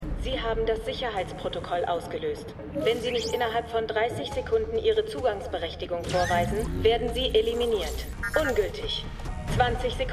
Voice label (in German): Computerstimme; Arrow